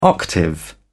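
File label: "octave", English, British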